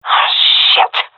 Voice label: sorry, vo fem; agents; Source Model Packs; Counter Strike Model Pack; CS2